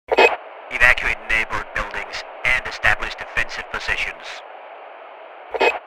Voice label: Firefighter radio chatter evacuate buildings